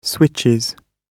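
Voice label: "switches", British English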